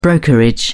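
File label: "brokerage", British English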